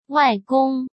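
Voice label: "外公", Mandarin Chinese; chinese flashcards; hsk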